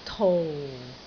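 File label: "tou4", Cantonese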